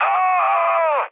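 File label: damaged pilot, Czech, mood med; speech; War Thunder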